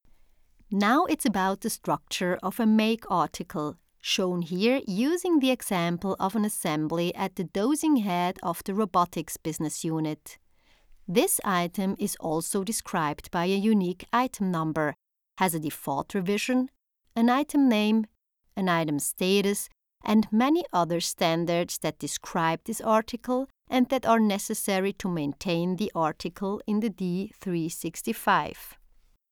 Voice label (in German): Hoerprobe Mitarbeiterschulung, Sprecherin